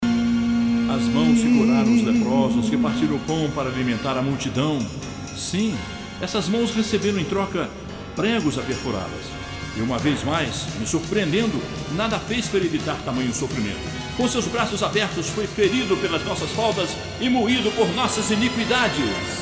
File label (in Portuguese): Narração